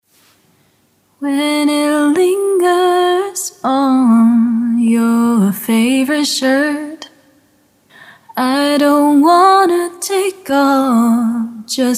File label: iPhone Recording MIXED